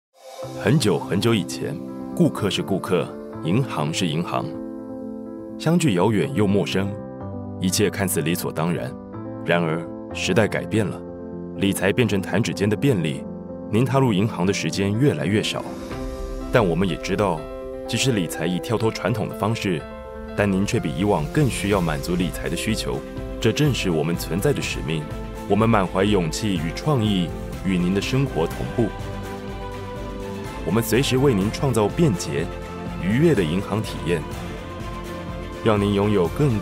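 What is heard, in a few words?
Taiwan, male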